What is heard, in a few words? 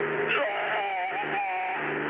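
German damaged pilot, speech; War Thunder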